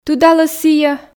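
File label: "тыдалӧ сійӧ", Komi, female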